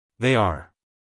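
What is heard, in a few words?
"They are", American English male; everyone-can-use-english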